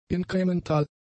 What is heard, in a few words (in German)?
"inkremental", German; adjectives